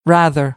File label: "rather", American English; æ - ɑ